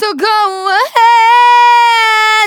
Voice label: phrase female

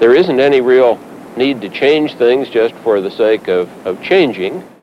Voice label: LoFi Phrases, LoFi Vocals; Vengeance Samples

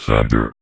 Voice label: Vocoder Words; Vengeance Samples

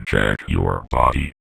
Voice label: Vocoder Phrases; Vengeance Samples

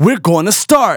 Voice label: Phrase Male Rap; Vengeance Samples

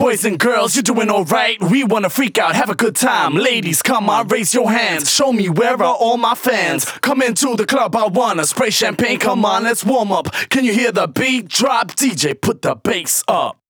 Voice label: Rap Vocals Vers DRY, 140 BPM; Vengeance Samples; Song Kits; U Got Me Rocking

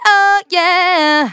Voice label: phrase female

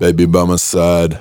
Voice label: Phrase Pitched; Vengeance Samples

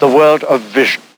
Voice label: LoFi Phrases, LoFi Vocals; Vengeance Samples

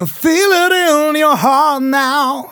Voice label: Phrase Male; Vengeance Samples

